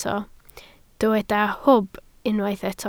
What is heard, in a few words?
"hwb", Welsh